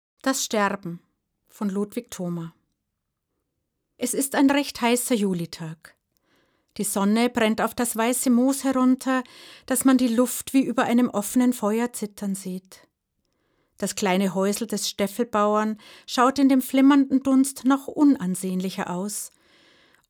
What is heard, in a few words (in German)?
Dialekt